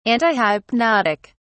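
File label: "antihypnotic", British English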